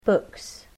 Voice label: "books", English